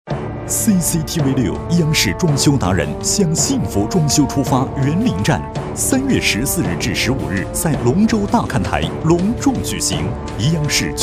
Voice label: 广告 家居家电 装修 激情, 男国; CCTV装修

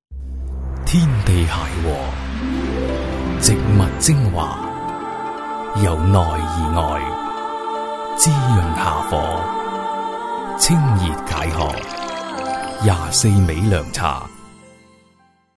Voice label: 男粤 广告 凉茶 大气; 品牌形象; 粤语

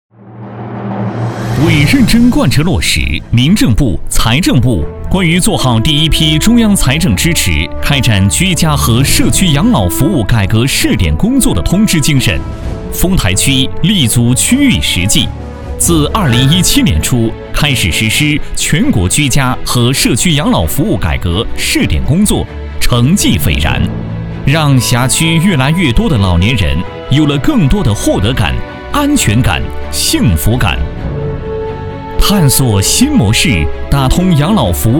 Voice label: 男 专题 汇报 大气; 社区养老改革工作汇报

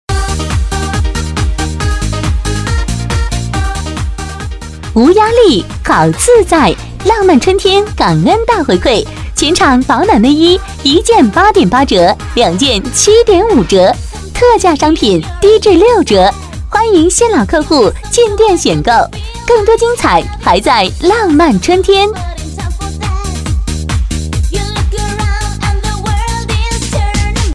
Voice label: 广告 促销 浪漫春天 活力

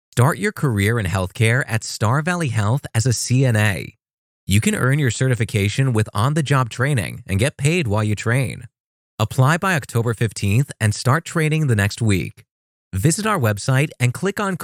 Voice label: 外籍男英 外语 美式英语 样音

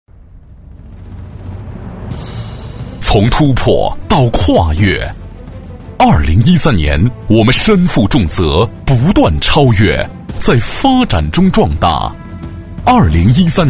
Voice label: 专题 企业 公司宣传片 厚重, 男国

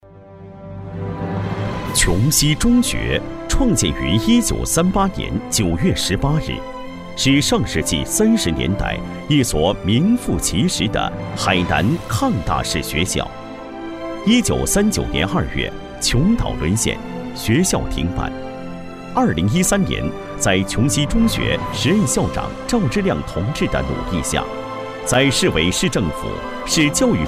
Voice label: 男国 专题 学校 沉稳; 琼西中学

